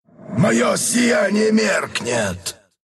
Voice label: death, ru; Dota 2